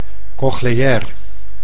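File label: "cochleair", Dutch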